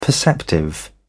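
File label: "perceptive", British English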